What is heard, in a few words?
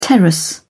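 "terrace", English, British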